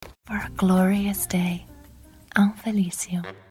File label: soft